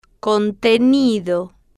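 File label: "contenido", Spanish, female, slow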